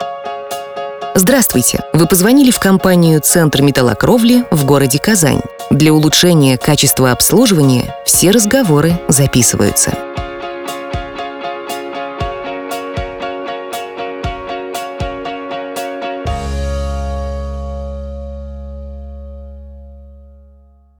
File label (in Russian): Avtootvetchik; Tsentr Metallokrovli